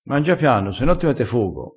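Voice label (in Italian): "fogo", vocabolario dialettale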